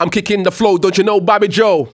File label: RAPPER